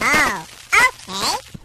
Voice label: oh okay cartoon

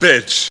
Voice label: "bitch", expletives; schwarz

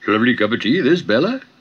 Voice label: flibbidy kopatee this bella, gibbrish; Voice

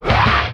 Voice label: "rah", stupified

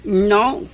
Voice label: "no", old woman; Voice; expletives

